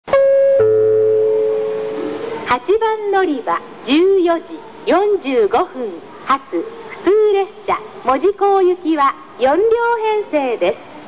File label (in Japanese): 案内放送 普通・門司港; 小倉駅; ８番のりば; 旧フレーズ(2001年2月末日収録) ---テレコ収録につき音量注意